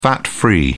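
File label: "fat-free", British English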